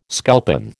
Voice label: "sculpin", American